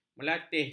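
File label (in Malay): "melatih", Malay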